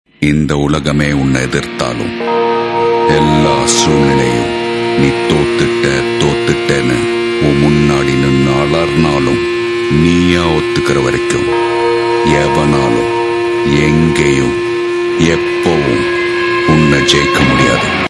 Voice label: Dialogue Tone; Vivegam